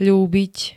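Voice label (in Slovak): "ľúbiť", Slovak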